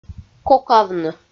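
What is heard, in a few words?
"кокавны" Komi, female; audio dict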